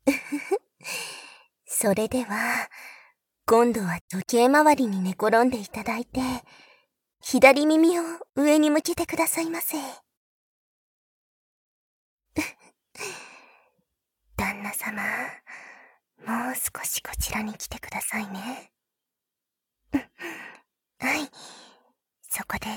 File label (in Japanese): 耳かき両耳（囁き重視編集 効果音あり）, ASMR